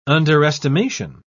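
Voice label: "underestimation", British English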